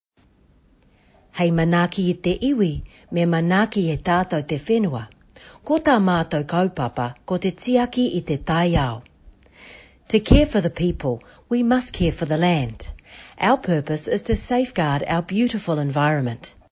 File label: Te Reo